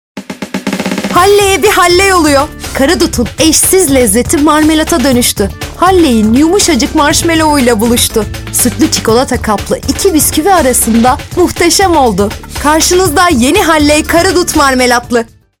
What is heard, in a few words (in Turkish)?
reklam; halley